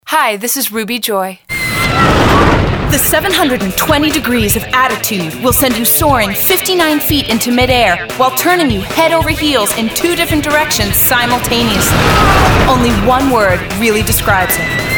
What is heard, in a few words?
commercial female